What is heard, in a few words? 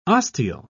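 "osteal", British English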